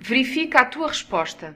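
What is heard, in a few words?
check answer, Chinese; gcompris-data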